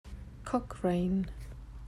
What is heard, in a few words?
Cochrane Pronounciation